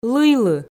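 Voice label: "лыйлы", Komi, female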